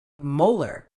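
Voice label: "molar", British English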